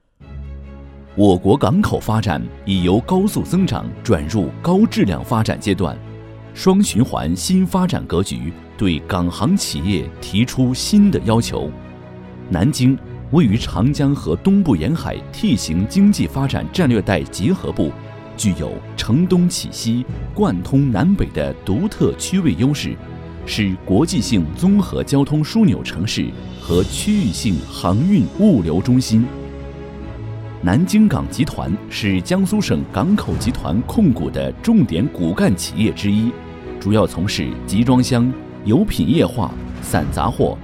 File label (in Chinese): 男-专题片-浑厚大气